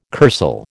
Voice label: "kursaal", American